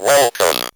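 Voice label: ROBVOX, robot voice; X-Static Goldmine 1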